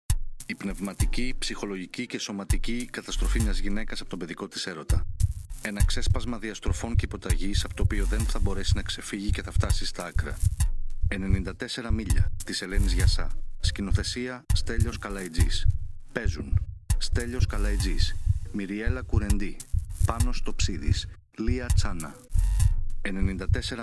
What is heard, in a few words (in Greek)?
SPOT; 94Μίλια